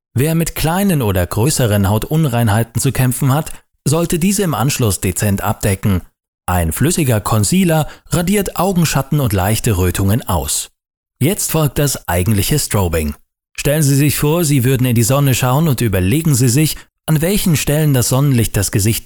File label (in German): Neutral